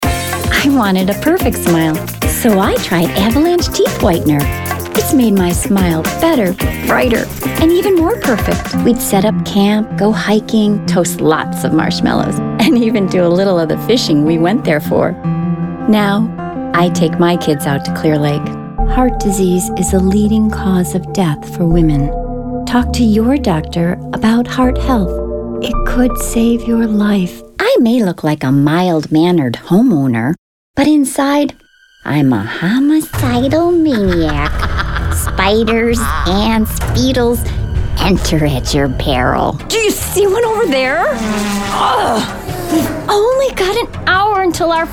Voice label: Commercial